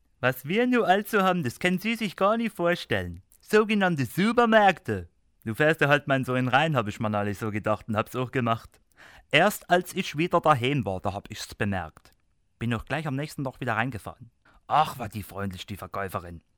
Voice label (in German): saechsisch